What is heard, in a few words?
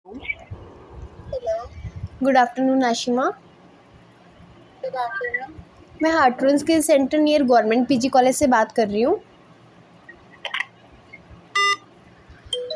call recording